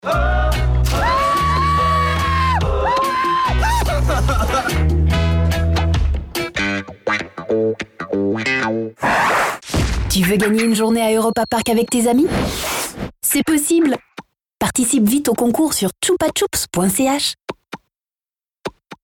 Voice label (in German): Werbung FR CH